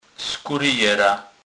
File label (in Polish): "skuryjera", kurpie